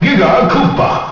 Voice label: announcer; giga koopa; ssbm